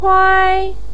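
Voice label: "kuai", Mandarin Chinese, first tone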